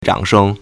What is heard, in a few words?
"zhang3sheng1", Chinese